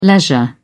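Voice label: "leisure", British English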